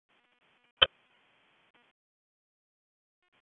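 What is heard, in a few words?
Fire Department HQ Dispatch; RFD HQ DISP; wake p25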